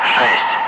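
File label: Russian speech, Ka-50 Wingman; Digits; DCS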